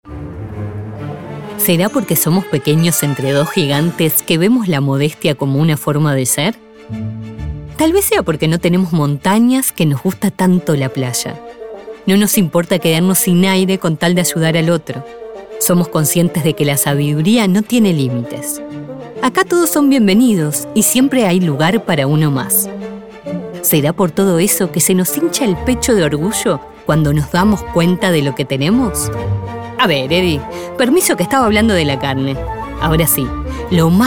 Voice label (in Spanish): spot institucional industria alimentaria